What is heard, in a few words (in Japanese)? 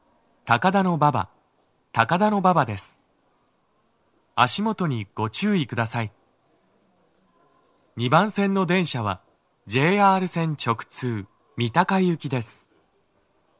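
到着放送, 男声, 足元注意喚起放送が付帯されており, 三鷹行; 高田馬場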